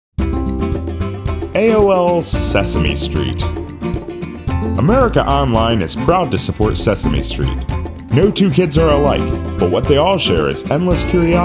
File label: voice over; AOL Sesame Street